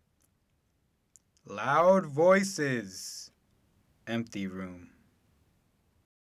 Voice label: loud voices empty room